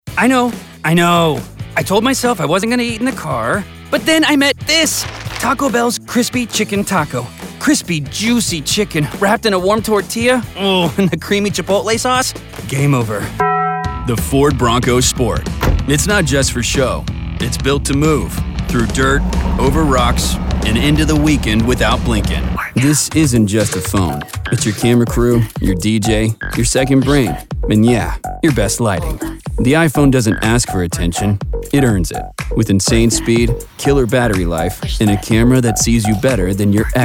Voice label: Commercial; vo Commercial Demo